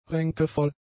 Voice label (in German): "ränkevoll", German; adjectives